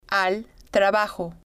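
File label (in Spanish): al Trabajo, spanish, female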